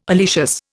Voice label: "oleaceous", Indian

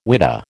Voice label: "whidah", American

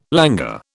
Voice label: "languor", British

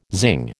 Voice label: "zing", American

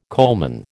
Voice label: "coalman", American

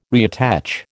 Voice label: "reattach", American

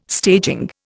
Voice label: "staging", Indian

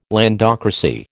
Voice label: "landocracy", American

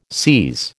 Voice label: "seise", American

